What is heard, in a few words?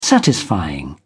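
"satisfying", British English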